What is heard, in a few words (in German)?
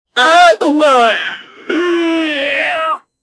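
oh no Enttäuschung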